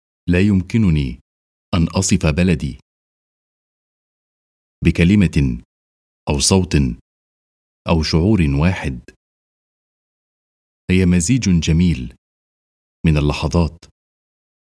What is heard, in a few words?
arabic voice, natural